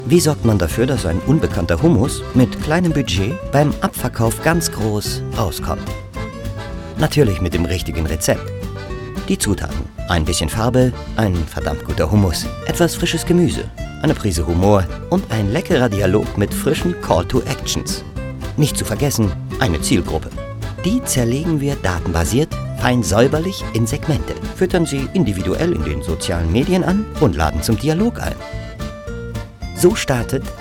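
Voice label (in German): advert, Freundlich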